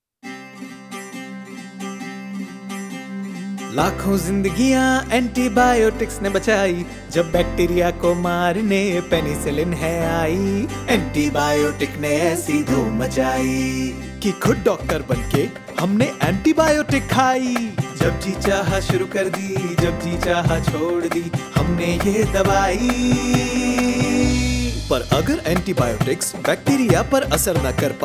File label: Antibiotic Jingle Hindi; jingles in 19 languages